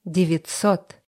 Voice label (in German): russische zahl 20; Zahlen auf Russisch